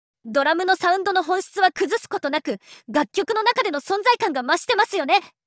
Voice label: angry